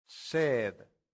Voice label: "sed", Latin; santillana

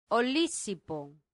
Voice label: "olisipo", latin; santillana

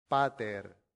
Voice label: "pater", latin; santillana

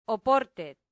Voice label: "oportet", Latin; santillana

